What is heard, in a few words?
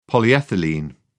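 "polyethylene", British English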